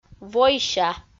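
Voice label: "войся", Komi, female; audio dict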